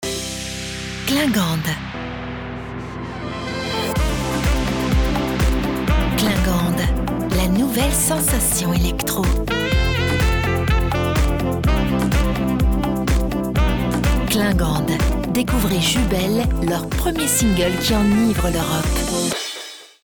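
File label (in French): Calme, comédienne voix off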